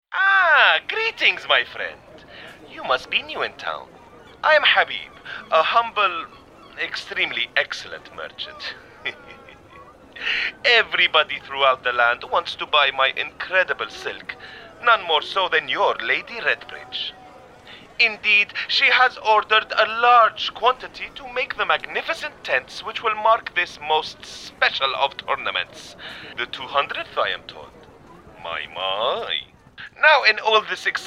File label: Character; Mini Mysteries; Habib